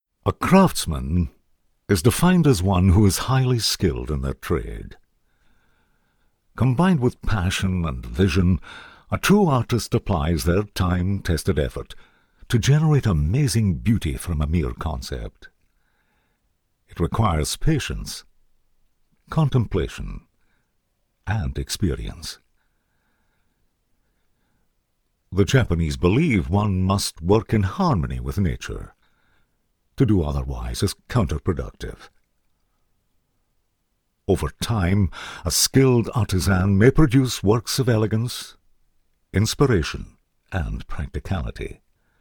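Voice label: English Scots, male, corporate, accent of scotland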